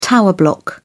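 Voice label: "tower block", British English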